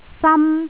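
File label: "sam1", Cantonese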